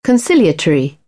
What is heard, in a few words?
"conciliatory", British; AmericanBritish